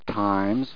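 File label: "times", English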